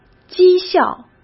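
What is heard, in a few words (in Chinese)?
"讥笑", Mandarin Chinese; chinese-flashcards; hsk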